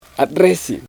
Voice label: Sora chicken egg, Sora-English lexicon with spoken content; Gumma